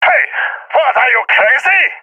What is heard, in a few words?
taking fire friendly, balkan; vo; balkan epic